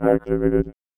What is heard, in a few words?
VOICEFX ELCTR 140; Techno2